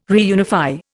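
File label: "reunify", Indian English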